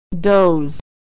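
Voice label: "doze", American English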